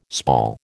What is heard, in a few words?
"spall", American